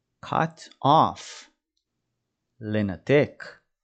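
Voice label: "cut off" English, "לנתק" Hebrew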